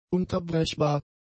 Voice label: "unterbrechbar", German; adjectives